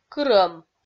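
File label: "кырӧм", Komi, female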